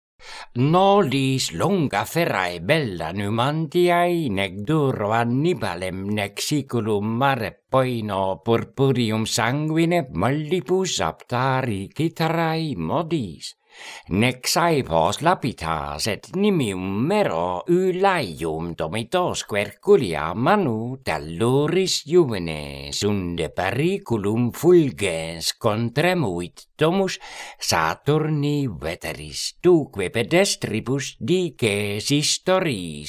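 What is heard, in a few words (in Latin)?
Horatii carmina quae voce canora pronuntiat; Nolis longa ferae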